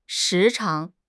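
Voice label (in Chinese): "时常", Chinese; HSK6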